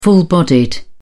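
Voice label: "full-bodied", British English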